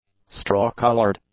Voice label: "straw-coloured", English; adjectives